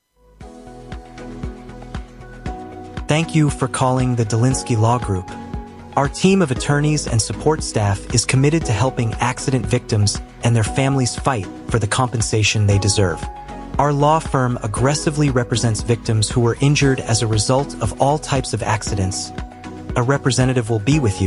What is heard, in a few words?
English male